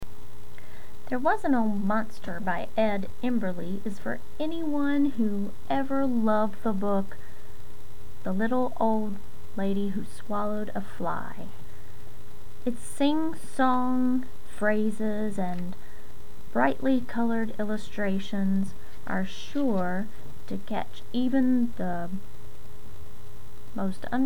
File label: old monster